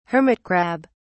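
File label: "hermit crab", British English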